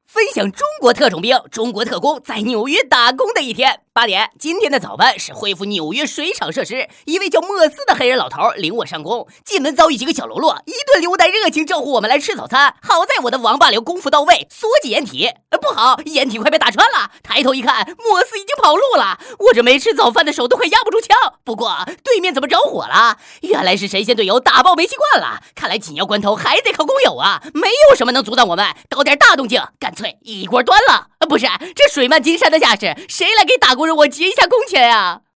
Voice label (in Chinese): 神经粗条搞笑男声